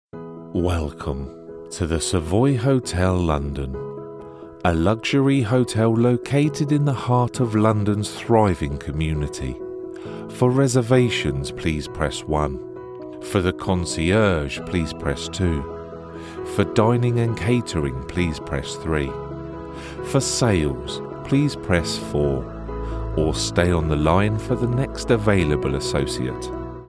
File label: IVR Hotel; Savoy-Hotel